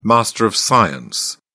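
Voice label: "master of science", British English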